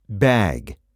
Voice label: "bag", spanish

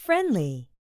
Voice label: "friendly"

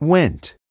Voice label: "went", spanish

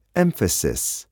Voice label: emphasis

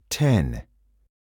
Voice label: "ten", spanish

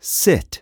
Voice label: "sit", spanish

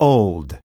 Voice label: "old", spanish

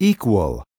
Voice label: "equal", spanish

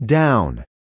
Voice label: "down", spanish

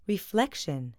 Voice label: "reflection", spanish

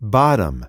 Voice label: "bottom", spanish

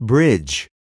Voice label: "bridge", spanish

